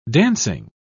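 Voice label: "dancing", British English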